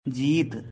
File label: "Jeet", Baghelkhandi; Win